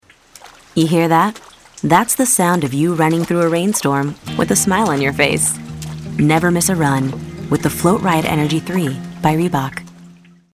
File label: Warm Inspiring Motivational